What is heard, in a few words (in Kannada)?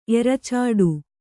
"ಎರಚಾಡು", Kannada